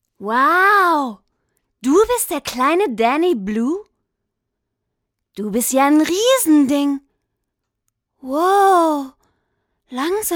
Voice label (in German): Charge Comic